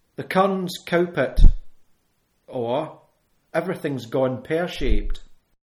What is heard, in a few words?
"cowpit", Scots